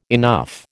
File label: "enough", American